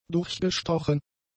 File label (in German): "durchgestochen", German; adjectives